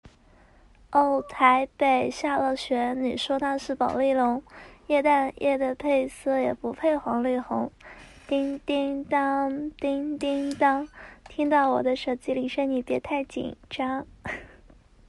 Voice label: 夹子音文案

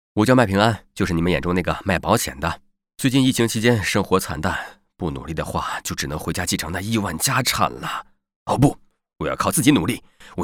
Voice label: 幽默旁白角色 语速飞快; 万万没想到; 王大锤

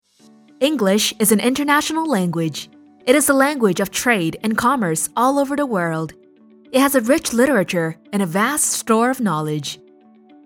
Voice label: 英女旁白英语

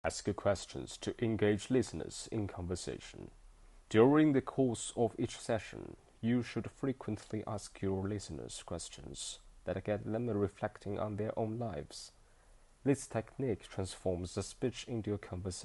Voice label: 英文

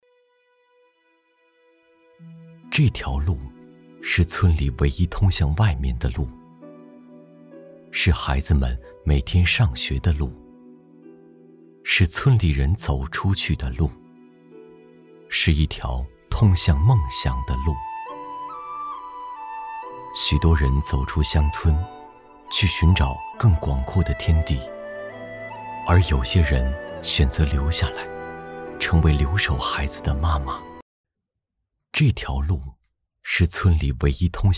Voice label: 公益 走心; 留守儿童公益走心

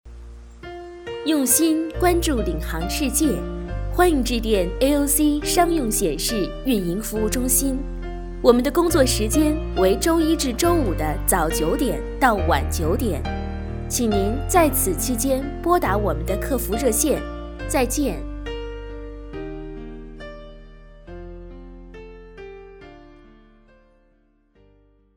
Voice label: 优质彩铃

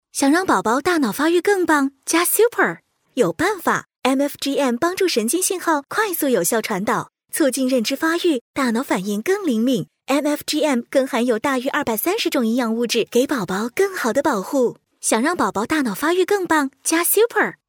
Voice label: 中文广告; 合生元

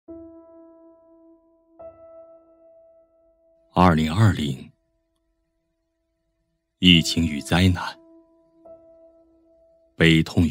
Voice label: 悲

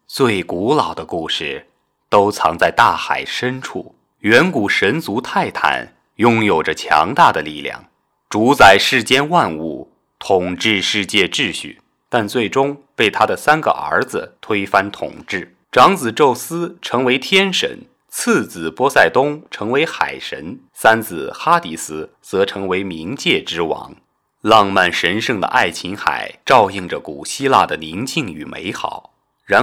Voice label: 娓娓道来纪录片

